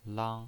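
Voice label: "lang", pinyin, Mandarin Chinese, first tone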